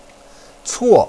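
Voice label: "cuo", Mandarin Chinese, fourth tone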